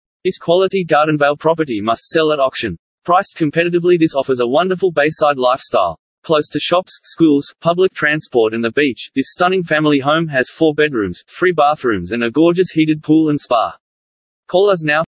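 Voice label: Australian presenter, real estate videos; REVideos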